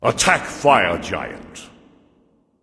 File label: vox attack; smite; ra; voicepack